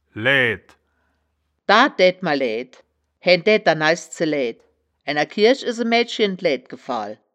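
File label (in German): "laeaed", wittlicher woerterbuch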